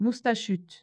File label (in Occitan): "mostachut", gascon; Prêts pour l’Òc